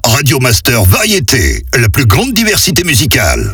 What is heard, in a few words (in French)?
Speed, Voix off; Plus grande diversitée; Radio Master Variétés